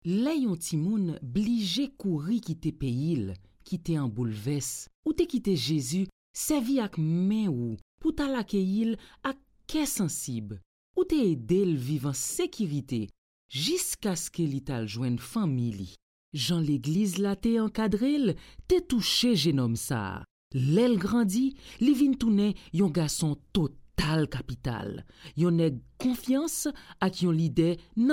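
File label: Haitian Creole, Narration; GoLocalise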